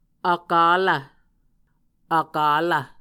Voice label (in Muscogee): "akkalv", Muscogee; Mvskoke Words with Repeated Consonants; A Dictionary of Creek/Muskogee; SIL International